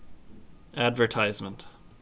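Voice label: "advertisement", Canadian male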